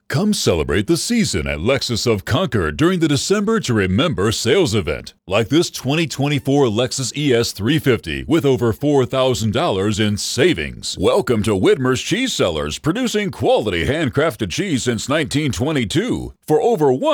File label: Commercial